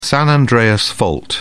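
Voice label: "san andreas fault", British English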